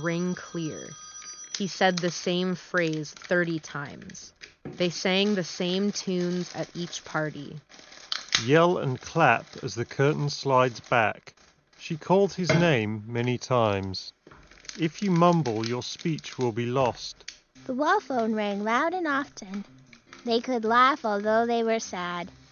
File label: Turtle Beach Stealth 600 Gen 2 MAX Office microphone sample